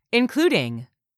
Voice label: "INCLUDING", english; 3000 words

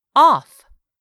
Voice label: "OFF", English; 3000 words

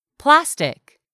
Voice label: "plastic", English; 3000 words

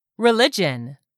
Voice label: "RELIGION", english; 3000 words

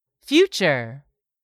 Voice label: "future", English; 3000 words

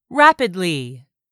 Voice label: "rapidly", English; 3000 words

